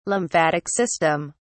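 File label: "lymphatic system", English, British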